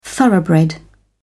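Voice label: "thoroughbred", British English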